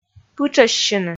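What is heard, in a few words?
"пытшӧссьыны", Komi, female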